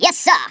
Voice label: "YES SIR", Stiff Upper Lip